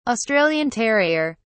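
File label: "australian terrier", British English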